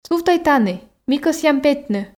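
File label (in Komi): сувтӧй тані ми кӧсъям петны, Komi, female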